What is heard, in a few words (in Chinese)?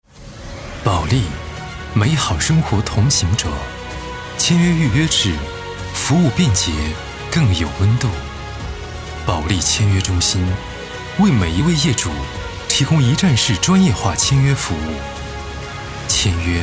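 男【宣传片年轻】; 保利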